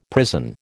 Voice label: "prison", American

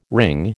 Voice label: "wring", American

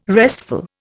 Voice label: "restful", Indian

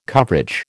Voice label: "coverage", American

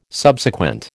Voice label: "Subsequent", American

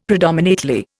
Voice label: "predominately", Indian; Dictionary

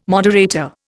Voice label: "moderator", Indian

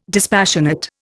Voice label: "dispassionate", Indian

